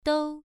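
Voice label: "dou" Mandarin, first tone, pronunciation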